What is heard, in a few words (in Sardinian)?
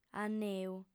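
"anneu", female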